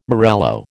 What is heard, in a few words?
"morello", American